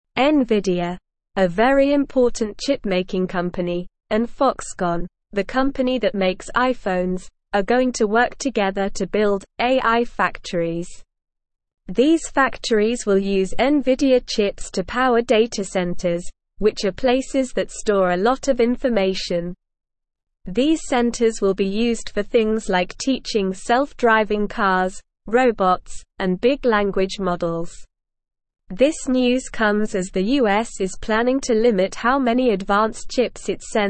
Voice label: English Newsroom Lower Intermediate SLOW Reading; Nvidia and Foxconn Join Forces to Build AI Factorie

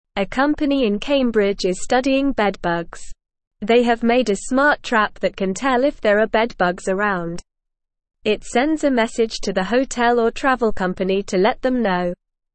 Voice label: English Lower Intermediate NORMAL Reading; Smart Trap Stops Bed Bugs from Spreading; English Newsroom

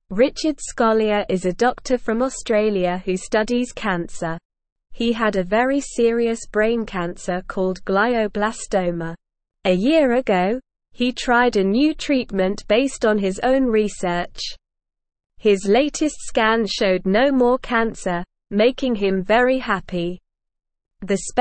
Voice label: English SLOW Reading; New Treatment Helps Doctor Beat Brain Sickness

